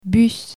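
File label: "bus", French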